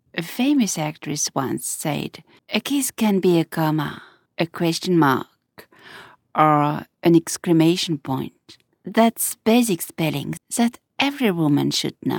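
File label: French English female Narration; voice over; accent of france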